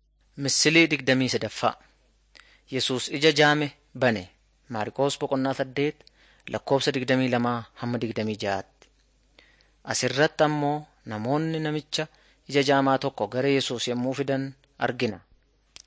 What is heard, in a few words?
oromo wellega; jesus teacher and healer short